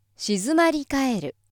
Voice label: female